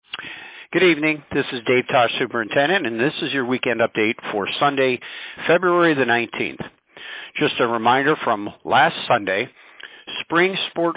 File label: Robocall